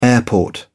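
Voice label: "airport", British English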